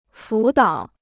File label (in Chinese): "辅导", Chinese